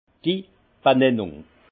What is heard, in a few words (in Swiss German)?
"bennennung", alsace; vin; dénomination